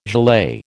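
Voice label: "gilet", American English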